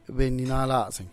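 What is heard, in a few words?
"bennynalazen", Teotitlán del Valle Zapotec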